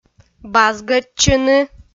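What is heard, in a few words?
"базгӧдчыны", Komi-Zyrian, female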